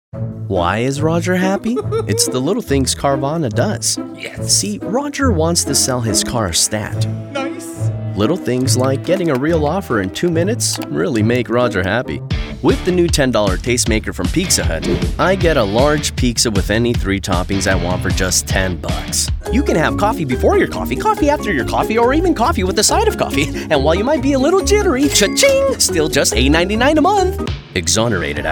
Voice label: Commercial